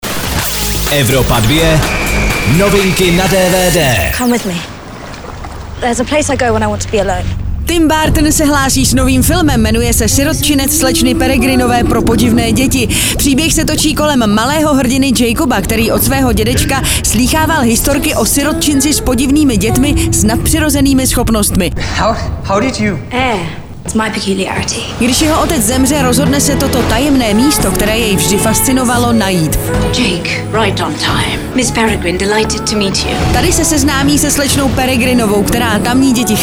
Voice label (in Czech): dvd news; sirotcinec slecny peregrinove pro podivne deti